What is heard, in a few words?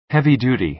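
"heavy-duty", American English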